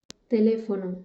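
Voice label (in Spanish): "teléfono", Spanish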